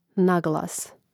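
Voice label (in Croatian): "naglas" Croatian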